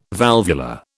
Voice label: "valvular", British